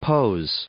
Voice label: "pose", English

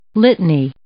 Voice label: "litany", English

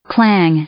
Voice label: "clang", verb, English

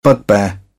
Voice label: "bugbear", British; AmericanBritish

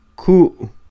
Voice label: "Ku'", Quiché; sit; INALI; UTexas; CHQETA

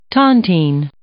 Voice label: "tontine", English

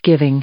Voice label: "giving", English; adjective

